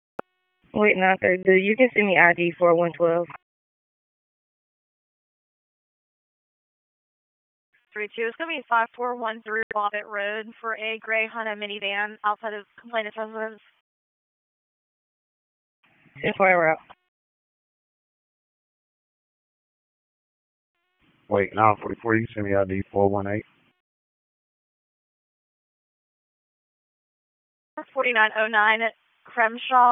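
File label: Sheriff's Office Dispatch; p25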